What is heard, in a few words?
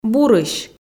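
"бурысь", Komi, female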